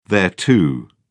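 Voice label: "thereto", British English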